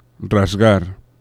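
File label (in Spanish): "rasgar", Spanish; laboratori fonetica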